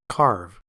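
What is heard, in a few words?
"carve", American English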